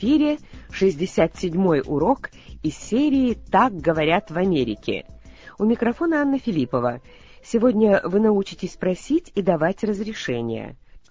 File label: russian english